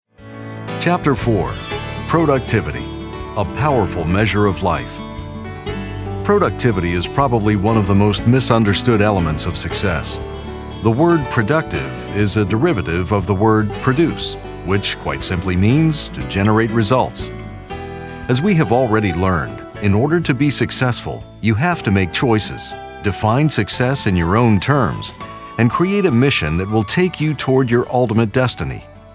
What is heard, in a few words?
english american audiobooks